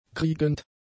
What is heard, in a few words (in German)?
"kriegend", German; adjectives